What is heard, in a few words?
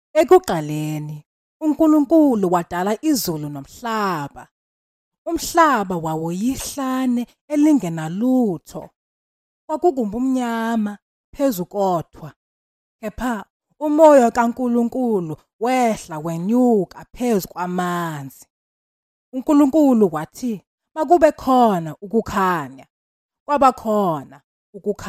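Zulu, General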